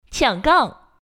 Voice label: "QIANGGANG", girl; ErRenmj